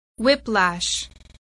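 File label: "whiplash", English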